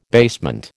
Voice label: "basement", American

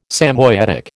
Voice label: "Samoyedic", American

